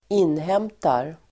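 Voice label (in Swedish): "inhämtar", Swedish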